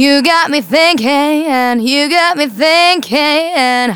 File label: Phrase Female; Vengeance Samples; Phrases